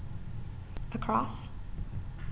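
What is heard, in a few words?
"across", American, female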